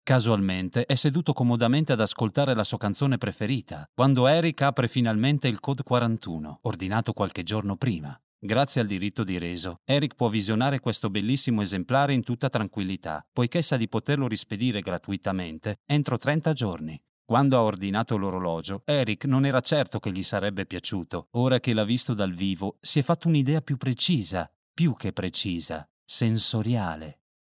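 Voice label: Italian male voice over, sales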